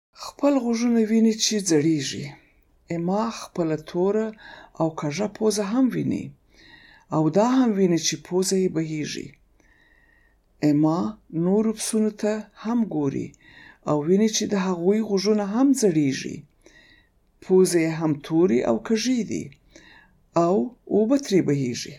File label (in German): paschtu